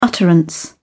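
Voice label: "utterance", British English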